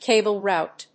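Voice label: "cable route", English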